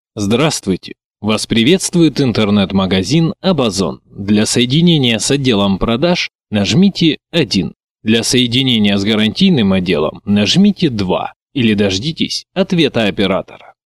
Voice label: IVR